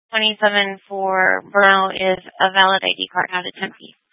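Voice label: Tempe police department, scanner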